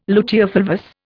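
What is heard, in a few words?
"luteofulvous", Indian English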